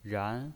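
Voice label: "ran", Mandarin Chinese, second tone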